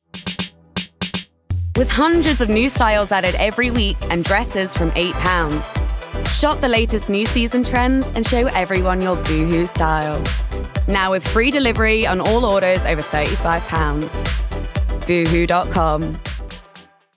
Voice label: Conversational, Bright, Upbeat, Natural, RP ('Received Pronunciation'); Boohoo